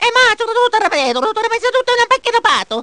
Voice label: gibberish; mario